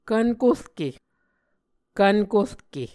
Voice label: "kvn korke", Muscogee